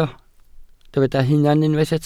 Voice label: "hunan", Welsh